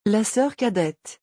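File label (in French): "La soeur cadette", French; vocabulaire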